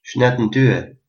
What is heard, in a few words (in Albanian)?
cheers, albanian gheg